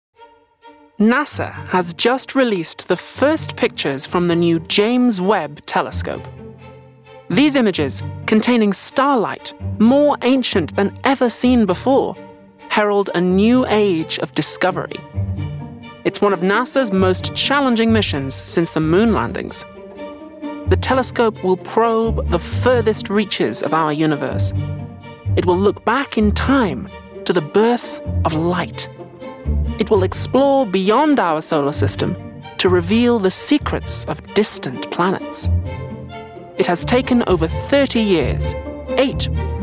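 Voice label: documentary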